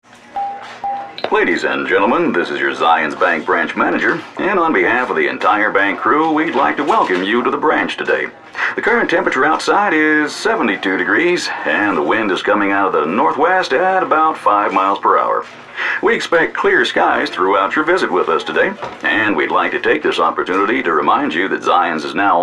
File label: Pilot Announcement; Zions Bank